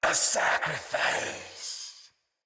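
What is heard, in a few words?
See Player Knight Male, Battle; Barks; Mendicant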